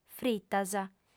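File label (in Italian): "fritas", lingua sarda, female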